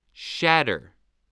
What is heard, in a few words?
"shatter", voice spelling